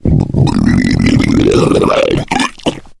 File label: male boomer alert; player; voice; source engine; left 4 dead 2